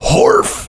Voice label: horf, voiceover

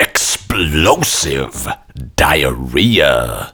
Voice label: explosive diahhrea, voiceover

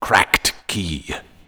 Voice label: cracked key, voiceover

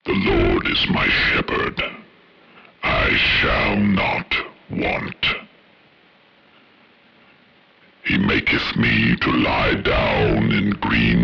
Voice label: preacher; dogma

